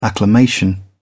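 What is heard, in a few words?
"acclamation", British English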